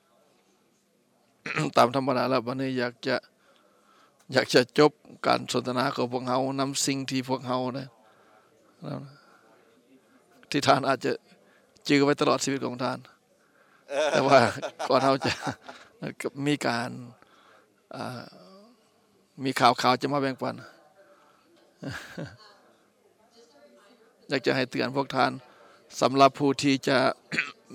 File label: Laotion; iclrs symposium 2009